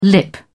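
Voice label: "lip", British English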